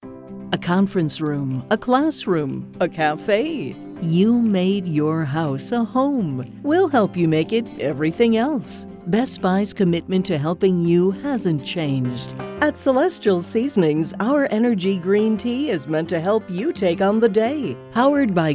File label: Commercial